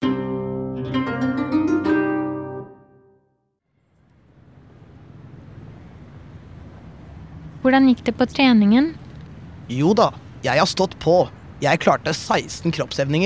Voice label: dialog, norwegian; norwegianclass101

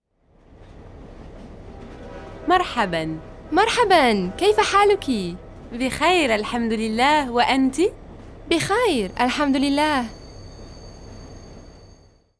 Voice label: dialog, arabic; arabicpod101

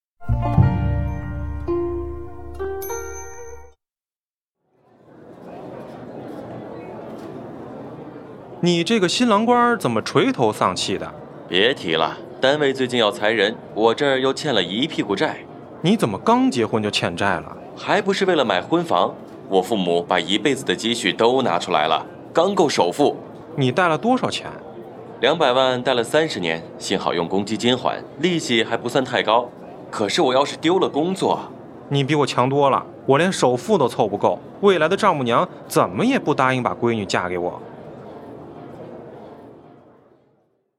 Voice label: dialog, chinese; chineseclass101

